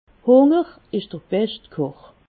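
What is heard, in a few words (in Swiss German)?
"hunger", Alsatian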